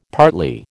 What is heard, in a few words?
"partly", American